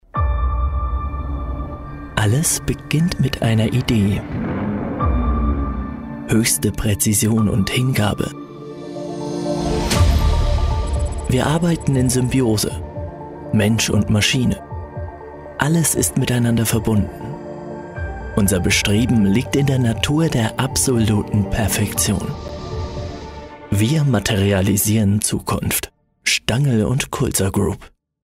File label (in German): Imagefilm; SK Group